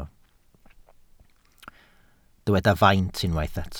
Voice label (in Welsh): "faint", Welsh